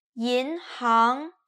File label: "yinhang", Chinese